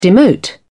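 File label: "demote", British English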